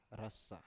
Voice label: "rasa", Biak

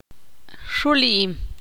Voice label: ixil; soplar

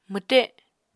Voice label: "mwte", Apatani

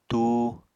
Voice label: "tú", Apatani

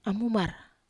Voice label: "amumar", Wamesa

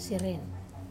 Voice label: "seren" holy place, Wamesa

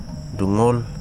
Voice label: "eye", Wahgi; lae unitech

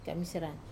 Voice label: "kamisera", morning, wamesa

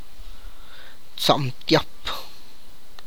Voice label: "seventeen", tlacochahuaya

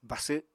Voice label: "basw", Apatani

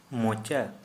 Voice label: Santali, mouth

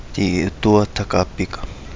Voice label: "Di Udua Tagapiga", Kapingamarangi

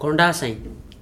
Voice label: Gta wild fowl, Dialect: Hill

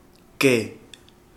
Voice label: turtle, Kapingamarangi

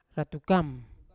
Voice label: "ratukam" to stab, Yawa

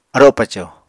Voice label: "aro paco", Apatani

